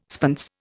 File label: "spence", Indian